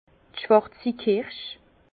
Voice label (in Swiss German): "schwarzi kirsch", Bas Rhin; vin; cerise noire